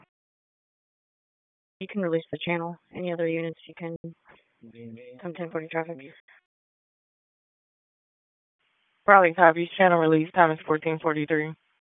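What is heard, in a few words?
Raleigh Police Southwest District Dispatch; p25; wake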